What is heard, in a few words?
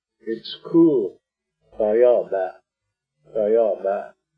"tóóyoobéé'", Arapaho; tóóyoobéé' = it is cool; Arapaho Language Project; RMNP; Weather Vocabulary related to Winter